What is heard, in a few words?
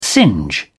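"singe", British English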